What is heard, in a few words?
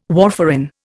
"warfarin", Indian